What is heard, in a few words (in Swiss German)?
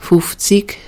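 "fufzig", Alsatian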